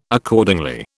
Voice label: "Accordingly", British

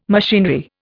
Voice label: "machinery", Indian

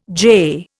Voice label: "jay", Indian

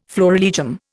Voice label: "florilegium", Indian

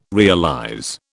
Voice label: "realize", British

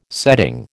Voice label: "setting", American

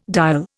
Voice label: "dial", Indian

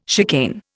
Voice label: "chicane", Indian

